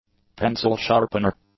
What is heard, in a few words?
"pencil-sharpener", English; nouns